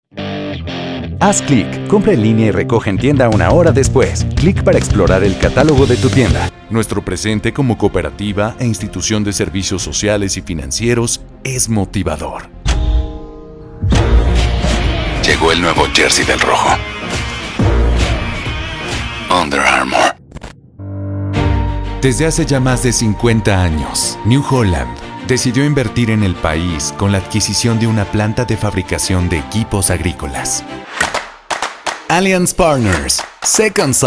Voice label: VoiceOver